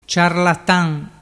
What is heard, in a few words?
"ciarlatan", dialettu